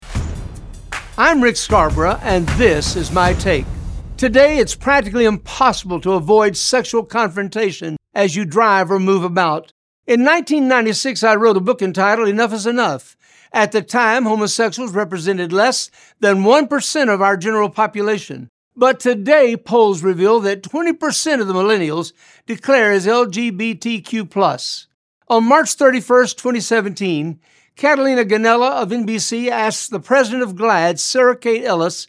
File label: Radio Spot; True Self